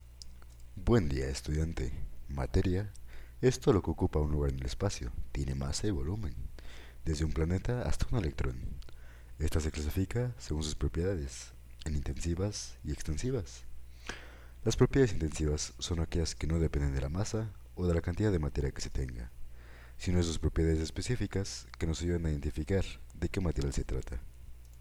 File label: E-Learning